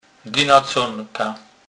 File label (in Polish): "dynaconka", kurpiow